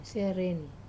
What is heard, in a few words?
"sereni", papaya, Wamesa